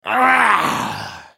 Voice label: ultimate effort, en US; Swain